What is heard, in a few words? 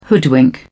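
"hoodwink", British English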